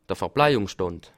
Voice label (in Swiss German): "verpajung", Alsace